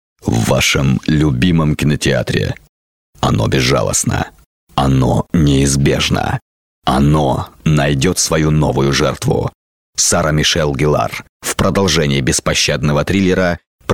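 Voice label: Russian, male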